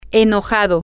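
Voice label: angry, Spanish, female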